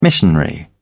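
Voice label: "missionary", British English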